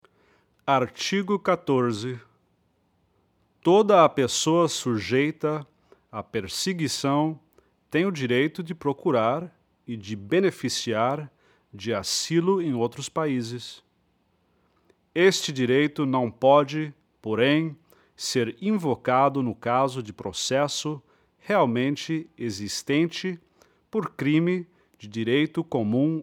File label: Portuguese